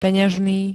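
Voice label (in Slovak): "peňažný", slovenské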